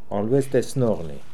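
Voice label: "Olooestesnokhnee", pronunciations; Fourth Way; Beelzebub pronounciations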